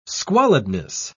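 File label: "squalidness", English, British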